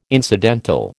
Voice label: "incidental", American